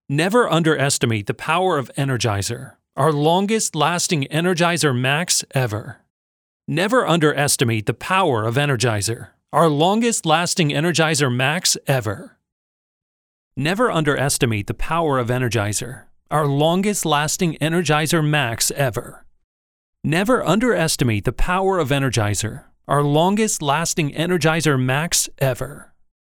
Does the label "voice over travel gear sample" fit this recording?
Yes